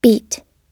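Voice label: "beat", American English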